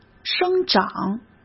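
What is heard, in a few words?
"生长", Mandarin Chinese; chinese flashcards; hsk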